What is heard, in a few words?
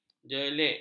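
"jelik", Malay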